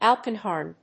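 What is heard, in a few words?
"alpenhorn", English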